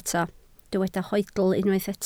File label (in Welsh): "hoedl", Welsh